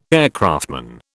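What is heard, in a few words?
"aircraftman", British English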